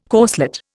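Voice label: "corselet", Indian English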